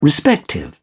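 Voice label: "respective", British English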